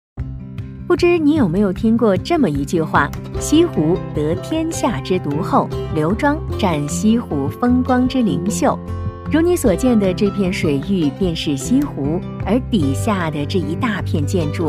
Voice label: 解说; 西湖国宾馆

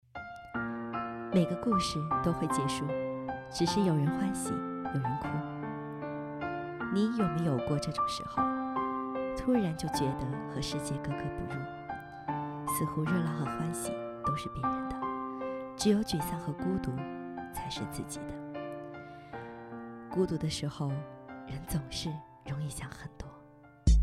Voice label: 情感电台

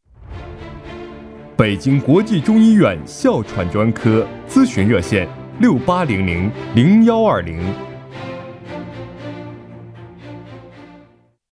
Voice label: 大气激情传媒广告

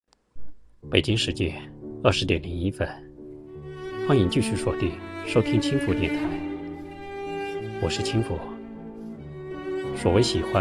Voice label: 电台

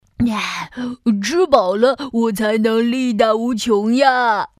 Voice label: 男童憨厚

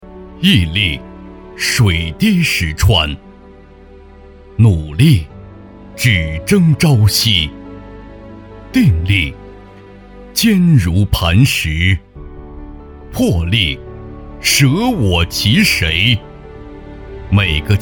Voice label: 走心大气振奋; 时间不会辜负每一个足够努力的淮安移动人

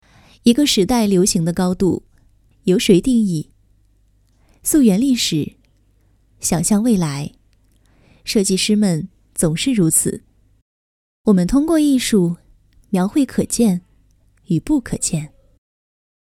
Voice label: 旁白科技感

